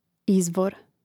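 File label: "izvor", Croatian; mreznik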